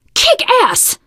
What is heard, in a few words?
nice job, teengirl; voice; source engine; left 4 dead 2; survivor